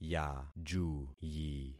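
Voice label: "yajuyi", concatenated syllables; Affixing experiment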